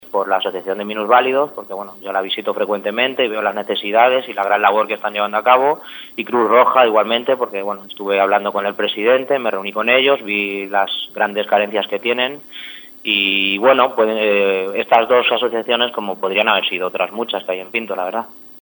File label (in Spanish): noticias